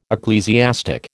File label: "ecclesiastic", American